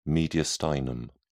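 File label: "mediastinum", English, British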